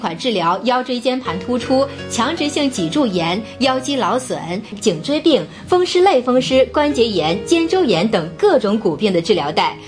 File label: chinese spoken female vox; FEMALE MISC